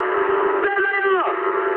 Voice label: eng enemy detected mood high, speech eng